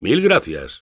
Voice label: thanks, es; Engineer